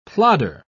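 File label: "plodder", British English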